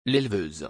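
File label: "L'éleveuse", French; دامدار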